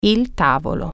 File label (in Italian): "table", Italian